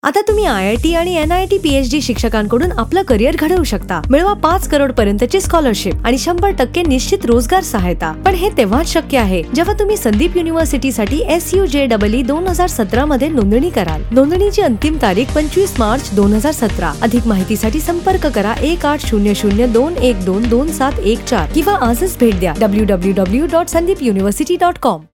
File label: Marathi